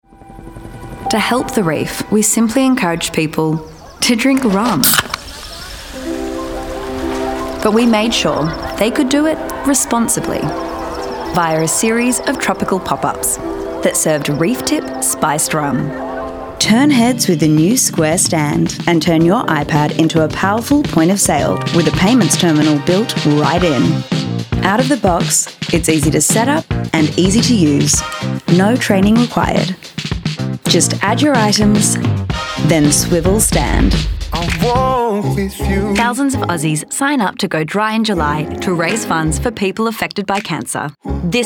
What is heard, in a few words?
Narrations